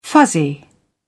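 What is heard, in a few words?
"fuzzy", British English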